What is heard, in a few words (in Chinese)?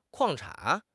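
"矿产", Chinese; HSK6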